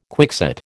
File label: "quickset", American